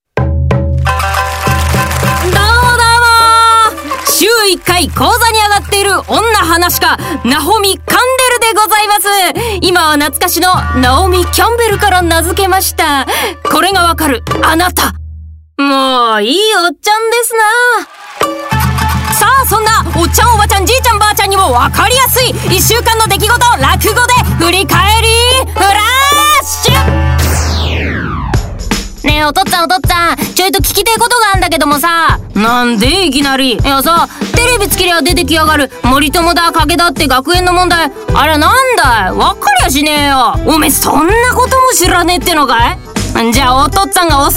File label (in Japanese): 落語ナレーション